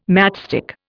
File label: "matchstick", Indian